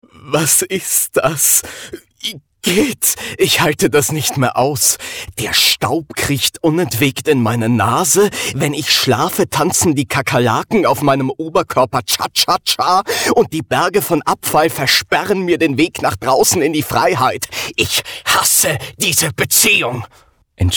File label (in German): Sprechprobe WERBUNG; CLEAN-IT